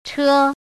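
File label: "chē", Mandarin Chinese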